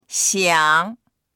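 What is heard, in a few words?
"xiang3", Mandarin Chinese; 想; ～したい、～と思う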